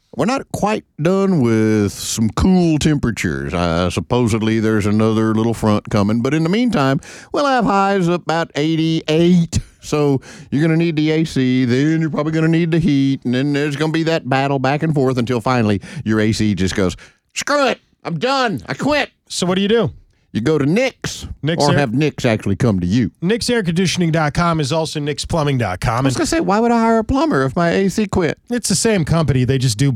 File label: RADIO AD; nicks plumbing radio ads